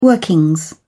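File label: "workings", British English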